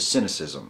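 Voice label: "cynicism", US English; pronunciations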